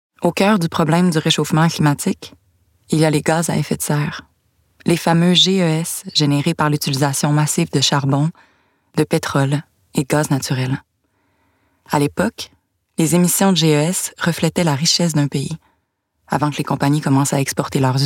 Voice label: changements climatiques personnage serieux fr normatif affirmee deter

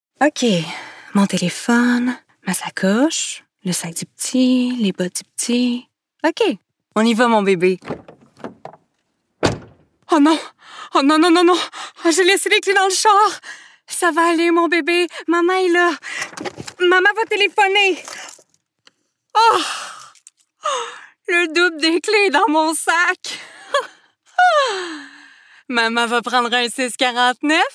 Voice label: leger personnage humour fr qc naturel comedie humour febrile vulnerable jeu; 649; jeune adulte

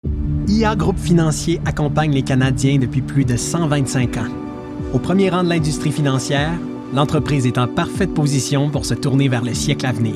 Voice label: corpo francais quebecois naturel promo medium adulte

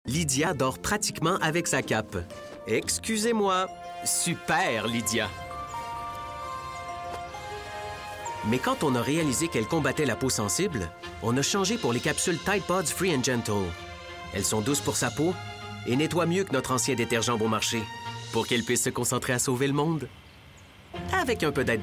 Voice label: publicite francais quebecois naturel annonceur; tide super lydia

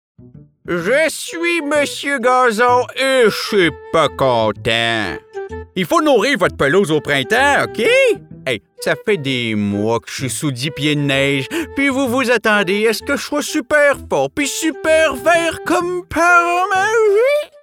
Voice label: voix de personnage publicitaire fr qc joual humoristique promo adulte senior

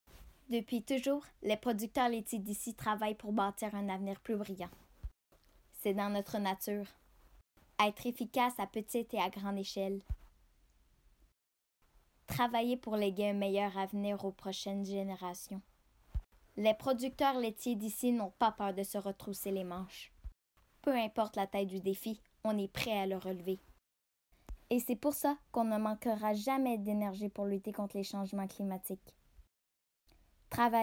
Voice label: enfant

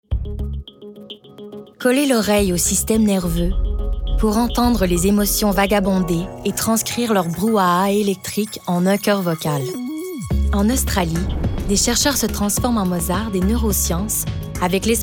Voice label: narration

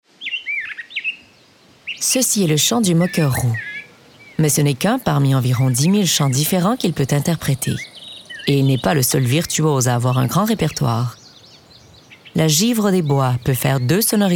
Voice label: narration

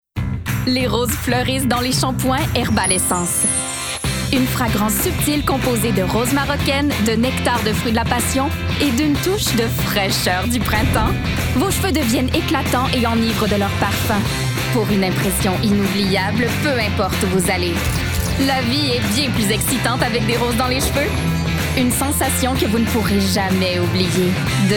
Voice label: fr qc naturel annonceur energique avec sourire jeune adulte; herbal essence

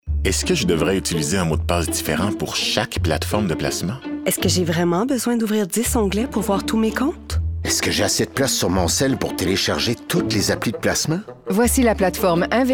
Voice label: pub personnage serieux conversation quotidienne hesitante sobre; scotia bank; adulte

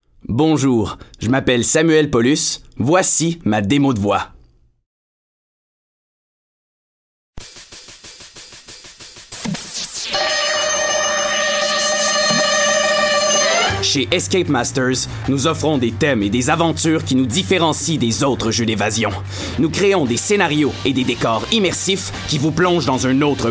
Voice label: annonceur fr qc naturel affirme determine energique grave; escape master; jeune adulte

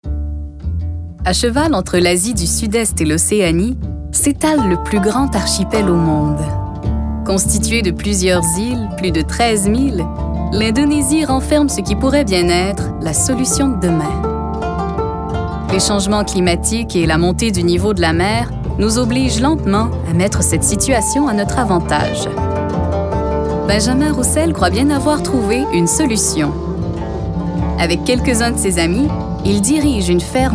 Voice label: narration affirme sobre explicatif amical jeune adulte; aquaculture

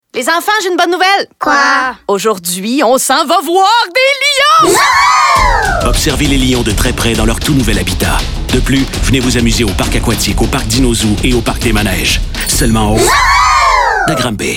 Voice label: pub zoo de granby

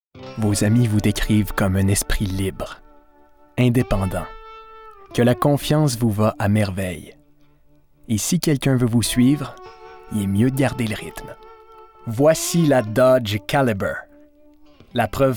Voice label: pub de voiture fr qc naturel affirme adulte medium

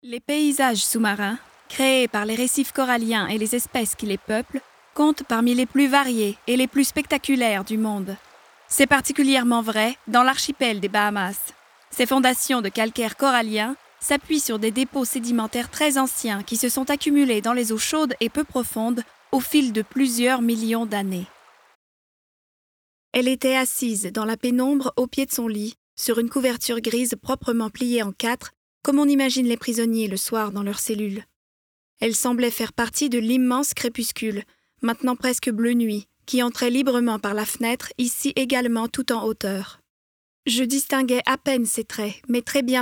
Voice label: demo narrations; gchampagne agence artistique

